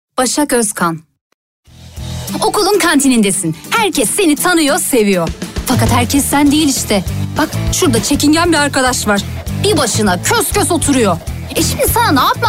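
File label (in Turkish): türkçe kadın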